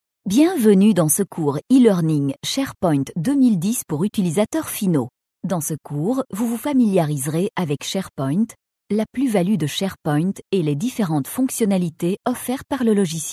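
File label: French, female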